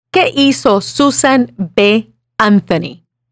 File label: Spanish